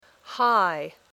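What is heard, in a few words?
"high", English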